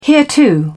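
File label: "hereto", British English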